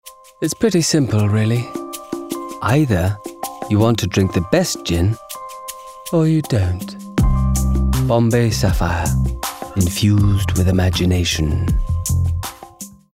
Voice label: COMMERCIAL; Bombay Sapphire